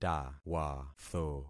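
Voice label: "tawatho", concatenated syllables; Affixing experiment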